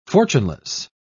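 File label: "fortuneless", English, British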